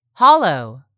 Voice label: "HOLLOW", english; 3000 words